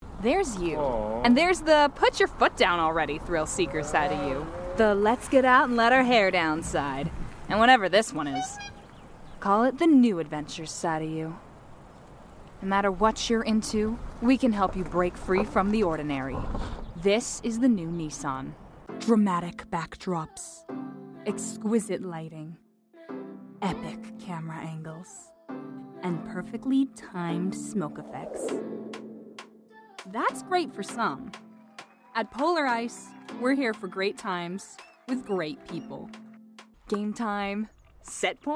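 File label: Commercial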